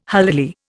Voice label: "holily", Indian

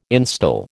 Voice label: "instil", American

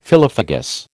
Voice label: "phyllophagous", American

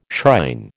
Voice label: "shrine", American

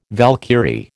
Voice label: "Valkyrie", American

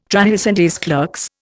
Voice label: "trahison des clercs", Indian

